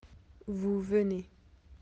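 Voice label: "venir", French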